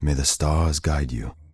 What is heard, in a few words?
Night Elf Male Standard Farewell